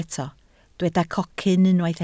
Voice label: "cocyn", Welsh

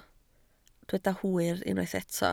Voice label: "hwyr" Welsh

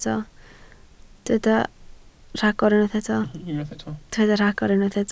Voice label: "rhagor", Welsh

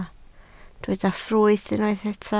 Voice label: "ffrwyth", Welsh

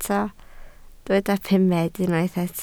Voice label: "pumed", Welsh

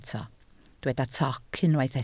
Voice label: "toc", Welsh

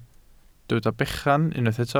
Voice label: "bechan", Welsh

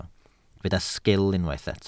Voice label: "sgil", Welsh

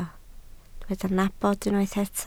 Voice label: "nabod", Welsh

